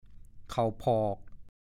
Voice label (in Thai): "เข่าผอก", ภาษาโคราช